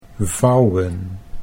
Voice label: "vouwen", Dutch